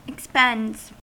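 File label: "expends", American English; pronunciations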